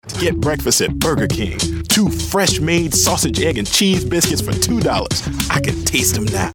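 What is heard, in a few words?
American commercial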